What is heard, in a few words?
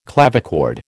"clavichord", American